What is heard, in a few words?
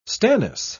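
"stannous", British English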